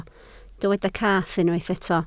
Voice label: "cath", Welsh